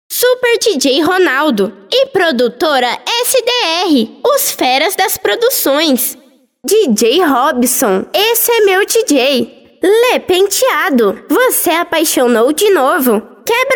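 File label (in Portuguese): Vinhetas sem efeitos de fundo; São vinhetas sem efeitos sonoros de fundo, voz tratada, equalização e limpeza de ruídos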